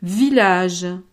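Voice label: "village", French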